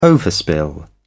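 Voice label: "overspill", English, British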